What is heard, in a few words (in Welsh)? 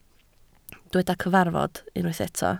"cyfarfod", Welsh